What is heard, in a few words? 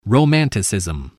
"romanticism", English spelling